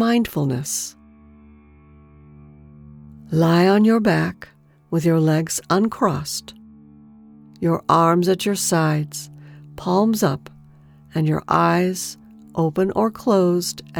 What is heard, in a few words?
Body Scan Meditation